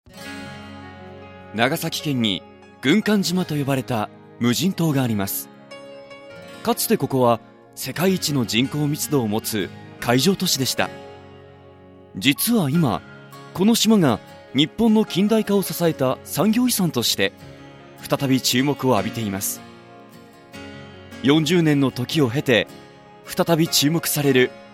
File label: male; ストレート